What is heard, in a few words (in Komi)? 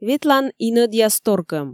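ветлан инӧдъяс торкӧм, Komi, female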